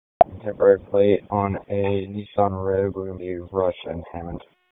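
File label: Police Southeast District Dispatch, p25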